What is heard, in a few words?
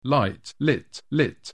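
"light", English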